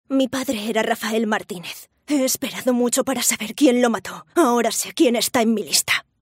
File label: FEMALE, videogames